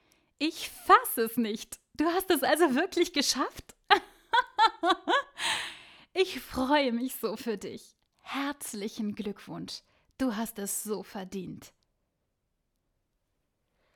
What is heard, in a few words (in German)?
synchron